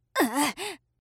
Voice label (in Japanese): 【ダメージ】ぐぅっ; 戦闘 11